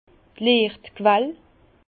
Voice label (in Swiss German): "liechtquell", Bas Rhin; vin; source de lumière